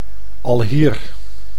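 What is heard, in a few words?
"alhier", Dutch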